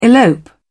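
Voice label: "elope", British English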